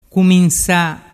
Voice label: "cuminsa", dialettu